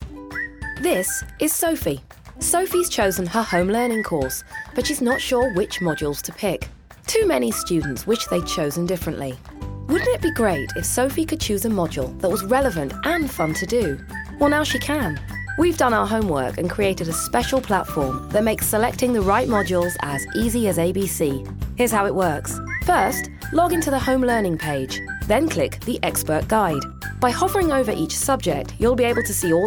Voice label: Explainer video